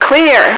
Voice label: "clear", radio, female